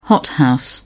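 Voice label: "hothouse", British English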